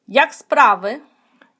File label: dialogue, Ukrainian